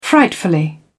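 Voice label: "frightfully", British English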